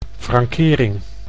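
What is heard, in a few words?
"frankering", Dutch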